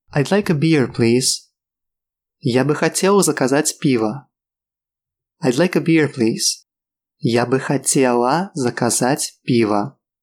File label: RUS I'd like a beer please